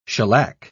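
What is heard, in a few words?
"shellack", British English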